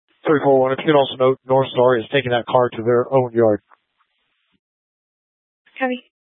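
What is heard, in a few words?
Incident Tactical, scanner audio recording; Incident Tac 3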